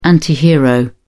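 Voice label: "antihero", British English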